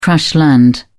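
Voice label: "crash land", English, British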